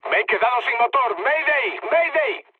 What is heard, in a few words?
damaged engine, mood med, speech; War Thunder